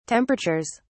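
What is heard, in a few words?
"temperatures", British English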